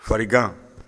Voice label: "farigan", Bambara